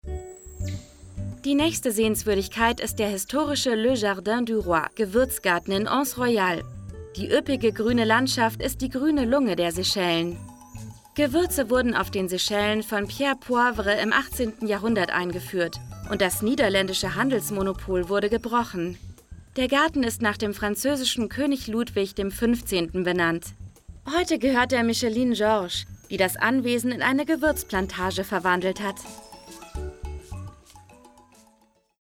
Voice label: Voicover; TravelXP; Stereo Full Mix